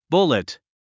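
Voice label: "bullet", English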